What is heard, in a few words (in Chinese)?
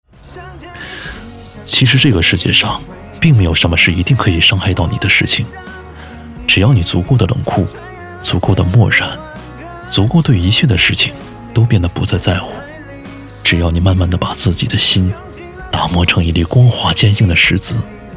男 独白